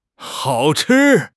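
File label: "hao3 chi1", Mandarin Chinese; HSK1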